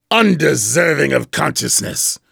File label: "undeserving", vortigaunt; Half Life 2; Episode 2